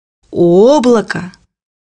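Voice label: "cloud", Russian; bukvar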